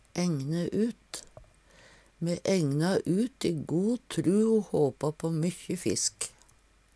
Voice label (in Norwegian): "egne ut", Numedalsmål